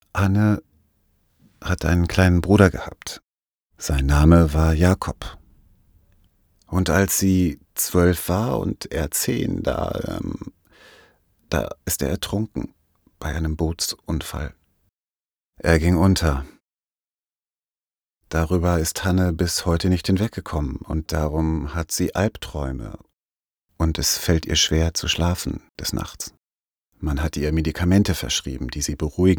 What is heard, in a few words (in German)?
Synchro Monolog